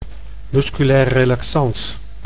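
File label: "musculair relaxans", Dutch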